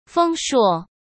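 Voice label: "丰硕", Mandarin Chinese; hsk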